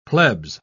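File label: "plebs", British English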